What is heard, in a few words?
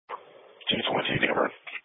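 Police Patrol (Dispatch)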